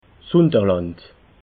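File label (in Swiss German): "unterland", Alsatian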